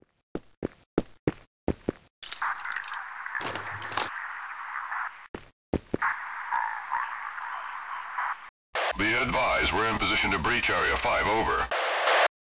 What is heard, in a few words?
grunts warn; fgrunt